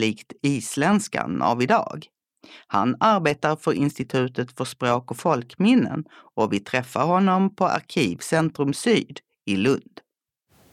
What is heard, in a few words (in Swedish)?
dialekt